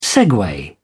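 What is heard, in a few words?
"segue", British English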